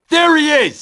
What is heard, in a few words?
"rapid fire", grunt; nimble grunt